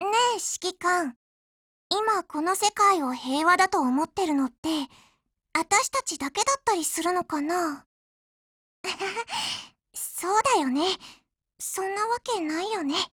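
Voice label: DIALOGUE, Japanese; APSMod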